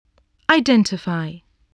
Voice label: "identify", voice spelling